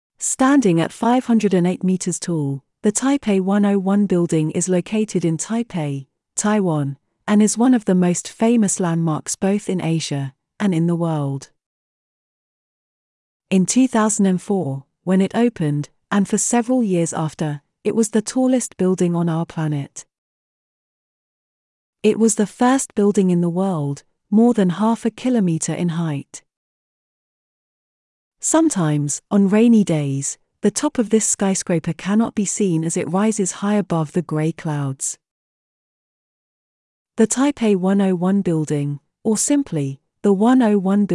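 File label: British; building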